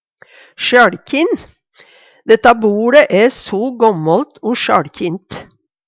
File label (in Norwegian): sjaLkjin, Numedalsmål; Detta boLe æ so gåmmålt o sjaLkint.; Adjektiv